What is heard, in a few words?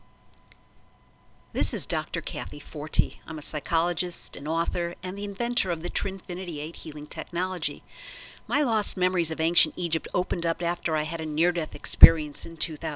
Radio Promo